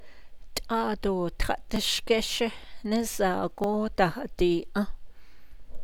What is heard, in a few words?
Before I could start sheering the sun is high up, Navajo